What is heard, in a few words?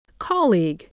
"colleague", voice